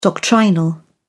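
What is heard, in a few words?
"doctrinal", British English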